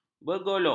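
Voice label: "bergolok", Malay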